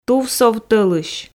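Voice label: "тувсов толысь", Komi, female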